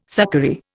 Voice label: "succory", Indian